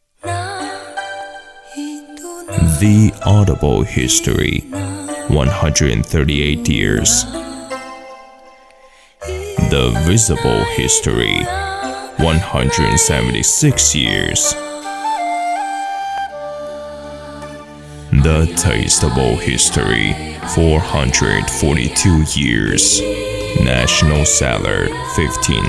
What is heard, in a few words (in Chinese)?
英文广告酒类; 国窖